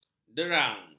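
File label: "derang", Malay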